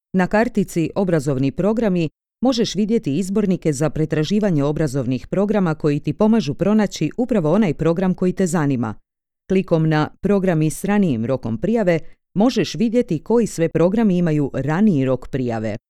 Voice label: e-learning croatian sample